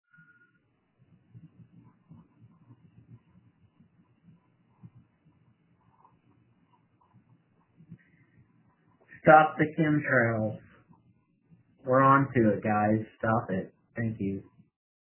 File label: voice message